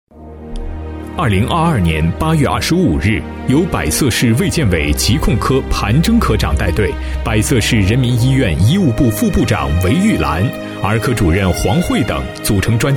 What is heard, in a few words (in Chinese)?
男 医院汇报; 隆林; 男D1-浑厚大气